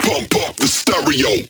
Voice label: Vocal Phrase Male "Pump Up" Stereo

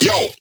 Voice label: Vocal Adlib Male "Yo"; bass house

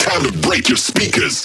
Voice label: Vocal Phrase Male "Speakers"; bass house

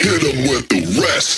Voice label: Vocal Phrase Male "Rest"

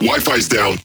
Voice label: Vocal Phrase Male "Wifi"; bass house

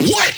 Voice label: Vocal Phrase Male "What"; bass house

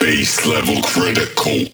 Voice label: Vocal Phrase Male "Critical"; Critical Alt

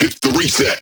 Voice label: Vocal Phrase Male "Reset"; bass house

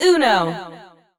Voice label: Yell UNO

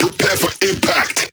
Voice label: Vocal Phrase Male "Prepare"; Prepare Alt

